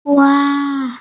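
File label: "hua1", Mandarin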